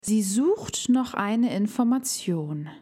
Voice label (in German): DaF Aussprache Ach laut Übung